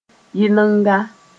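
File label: "yɩlenga", Mossi; clean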